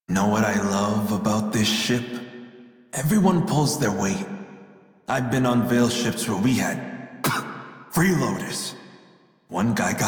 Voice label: Crew Idle Male en; Dialog; Warframe; Railjack Crew; Red Veil